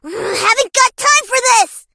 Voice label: hurt vo

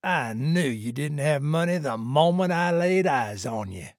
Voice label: trader male neutral sale declined

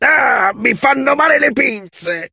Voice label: hurt vo; scorpion chuck

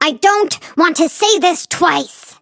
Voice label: hurt; flea

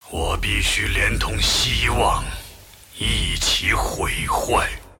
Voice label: move, zh; Aatrox

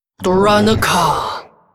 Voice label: female demon hunter morphed yes, zh

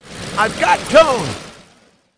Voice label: yes attack, en; Gyrocopter

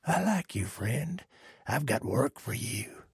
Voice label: trader male like quest offer

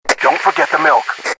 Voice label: walkie start

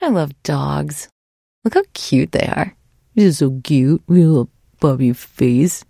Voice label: trader monolog; 商人杰恩（七日杀）

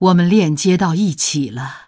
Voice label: move zh

